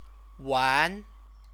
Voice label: "wan", Chinese